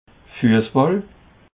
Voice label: "fussball", Alsace

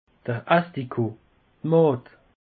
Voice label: "mad", alsace; peche

